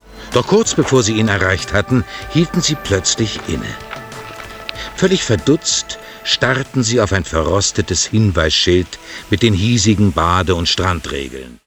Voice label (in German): hoerbeispiel erzaehler